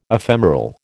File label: "ephemeral", American